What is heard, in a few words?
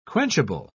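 "quenchable", British English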